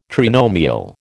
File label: "trinomial", American